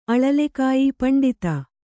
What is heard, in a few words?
"ಪಂಡಿತೆ", Kannada